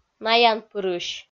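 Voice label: "наянпырысь", Komi-Zyrian, female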